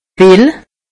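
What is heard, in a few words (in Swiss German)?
"pill", Alsatian; medecine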